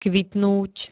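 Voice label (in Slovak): "kvitnúť", Slovak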